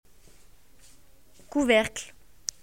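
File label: "lid", French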